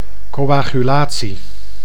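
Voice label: "coagulatie", Dutch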